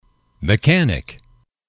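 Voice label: "mechanic", English, male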